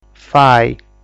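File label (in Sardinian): "Fai", Sardinian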